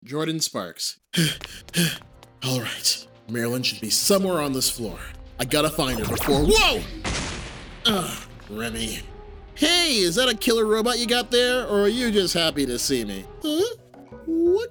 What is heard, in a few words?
Character Reel